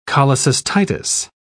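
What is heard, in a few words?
"cholecystitis", British English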